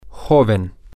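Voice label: young, Spanish, male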